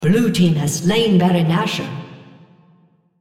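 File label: On Kill Worm blue female spectator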